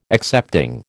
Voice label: "excepting", American

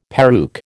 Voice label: "peruke", American

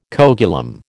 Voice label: "coagulum", American

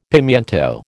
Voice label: "pimiento", American

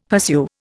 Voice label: "pursue", Indian; Dictionary

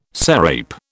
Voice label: "sarape", British

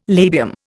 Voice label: "labium", Indian

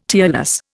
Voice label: "tearless", Indian

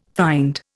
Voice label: "find", Indian

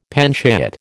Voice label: "panchayat", American

